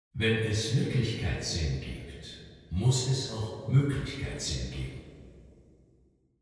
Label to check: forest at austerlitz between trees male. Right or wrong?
Right